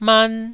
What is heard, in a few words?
"man1", Taiwanese syllable